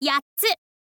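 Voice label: "yattsu", Japanese, girl; voice; info girl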